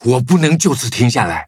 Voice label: defeat, zh-hant